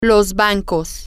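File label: the banks, spanish, female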